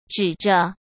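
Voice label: "指着", Mandarin Chinese; chinese-flashcards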